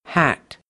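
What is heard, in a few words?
"hat", English; noun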